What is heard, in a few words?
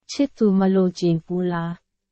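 Myanmar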